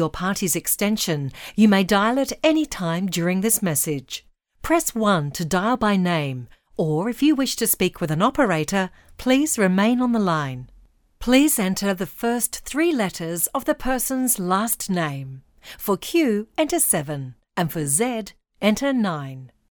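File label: English Australian, female, voice over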